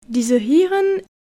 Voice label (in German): "Diese Irren", fehlerbeispiele, ausspracheschulung